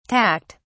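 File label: "tacked", British English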